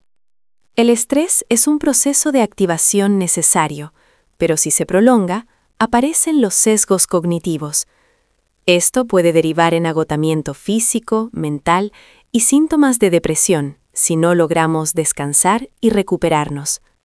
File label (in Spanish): locucion